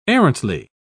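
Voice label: "arrantly", British English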